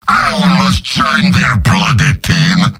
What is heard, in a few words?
jeers; demoman; mvm